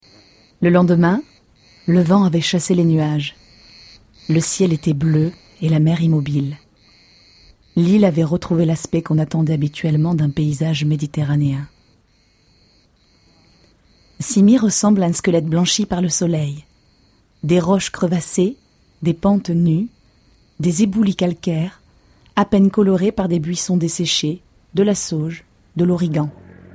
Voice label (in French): audio book, FR F; Français